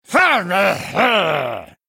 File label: male murloc attack